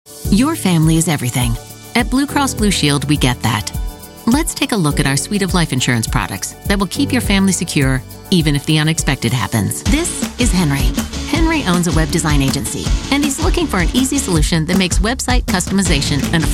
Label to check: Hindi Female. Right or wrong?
Wrong